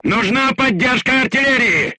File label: voice message art command, crew tanks russian